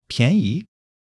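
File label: "piányi", Chinese; 基础汉语40课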